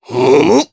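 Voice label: Vox Deny, voices, Japanese; Oddy; kings raid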